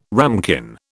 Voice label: "ramekin", British

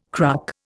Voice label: "cruck", Indian

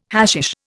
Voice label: "hashish", Indian

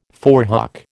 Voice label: "forehock", American

